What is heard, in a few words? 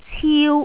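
"ciu1", Cantonese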